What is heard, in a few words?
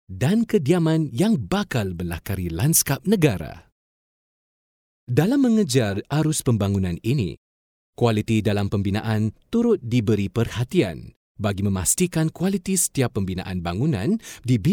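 Malaysia, male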